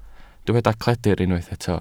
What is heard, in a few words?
"cledr", Welsh